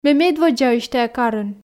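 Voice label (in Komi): ме медводдзаысь тайӧ карын, Komi, female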